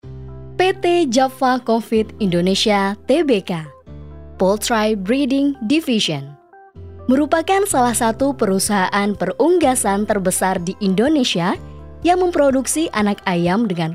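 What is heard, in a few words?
Indonesian formal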